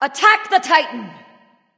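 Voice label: attack male; smite; nike; voicepack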